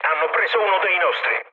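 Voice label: Italian aircraft destroyed mood low, speech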